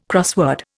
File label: "crossword", Indian